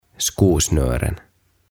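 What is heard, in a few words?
"Skosnören", Swedish; Kengännauhat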